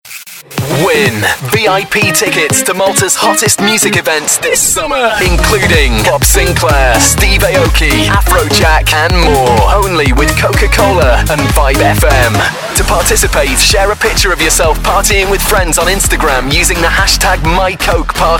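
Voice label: commercials, male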